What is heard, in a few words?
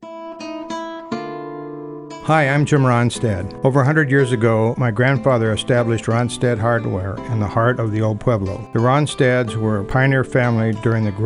Medicare Radio Spot; Pioneer